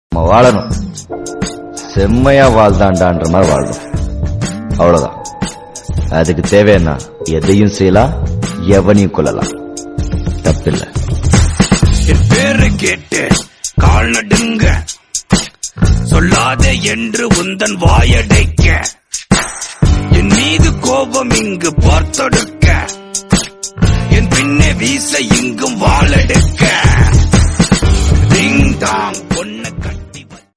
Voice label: Tamil dialogue; saraku

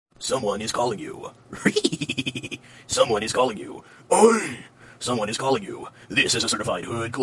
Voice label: meme funny comedy; quandale dingle

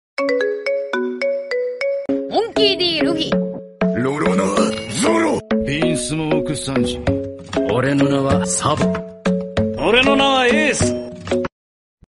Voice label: inspirational dialogue; One Piece

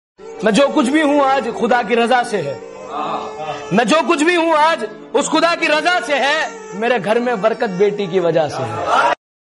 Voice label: birthday shayari for daughter

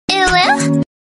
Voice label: uwu notification

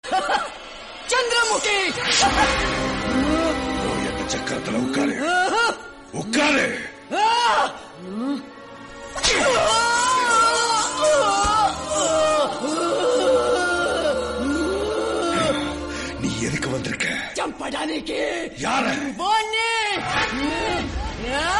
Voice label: dialogue, Tamil; chandramukhi; jothika; rajinikanth

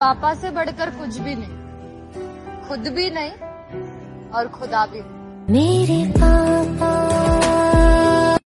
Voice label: hindi dialogue

